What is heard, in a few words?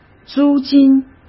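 "租金", Mandarin Chinese; chinese-flashcards; hsk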